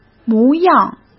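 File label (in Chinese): "模样", Mandarin Chinese; hsk; chinese-flashcards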